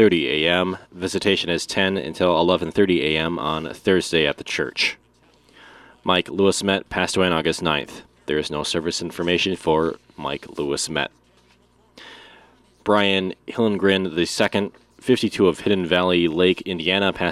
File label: Funeral Announcements